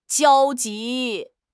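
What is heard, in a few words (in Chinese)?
"焦急", Chinese; HSK6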